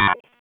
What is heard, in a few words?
PS TAC, p25; wake